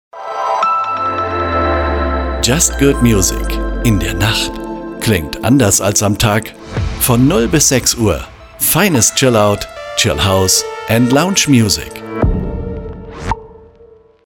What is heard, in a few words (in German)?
Station ID